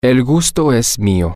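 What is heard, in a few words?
the pleasure is mine, spanish, male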